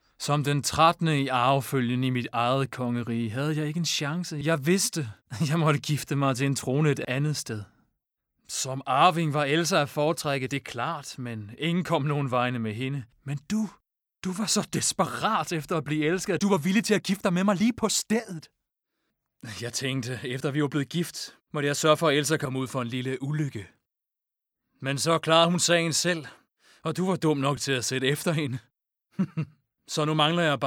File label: male danish, animation; disney frozen prince hans scene i